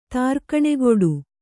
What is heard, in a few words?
"ತ್ರಿಗುಣಕೂಟ", Kannada